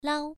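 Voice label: "lao", Mandarin Chinese, first tone, pronunciation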